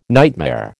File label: "nightmare", American